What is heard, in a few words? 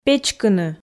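"печкыны", Komi, female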